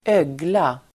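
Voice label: "ögla", Swedish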